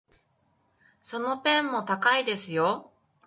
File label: Japanese; Japanese Power Level Test